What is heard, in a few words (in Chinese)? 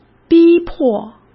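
"逼迫", Mandarin Chinese; hsk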